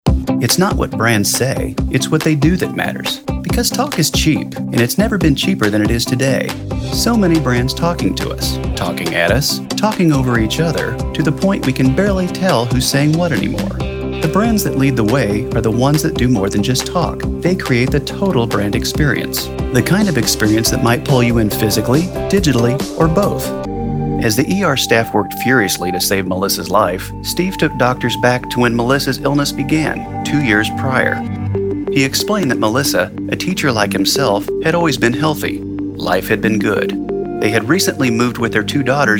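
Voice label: narration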